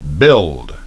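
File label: "build", English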